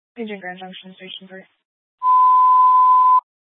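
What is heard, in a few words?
Fire Dispatch, Scanner audio recording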